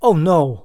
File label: Male Voice "Oh No"